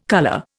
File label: "colour", Indian